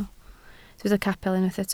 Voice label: "capel", Welsh

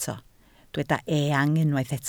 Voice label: "eang", Welsh

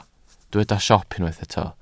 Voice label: "siop", Welsh

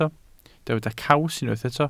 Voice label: "caws", welsh

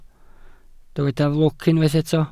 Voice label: "lwc", Welsh

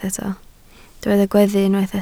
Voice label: "gweddu", Welsh

